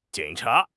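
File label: "jing3 cha2", Chinese; HSK4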